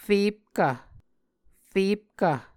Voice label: "fepkv", muscogee